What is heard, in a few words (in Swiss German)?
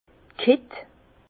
"kitt", Bas Rhin; vin; coing